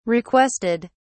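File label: "requested", British English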